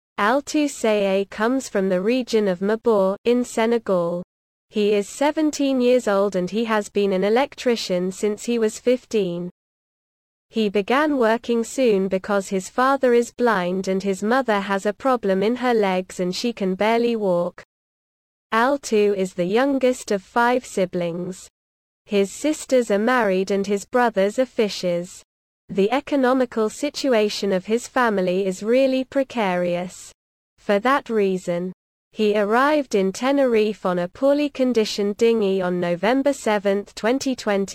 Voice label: audio english